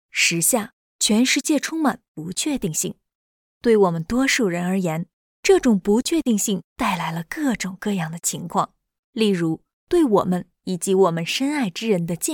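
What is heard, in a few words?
Chinese Mandarin, female, narration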